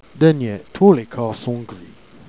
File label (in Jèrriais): "d'niet touos les cats sont gris", Jèrriais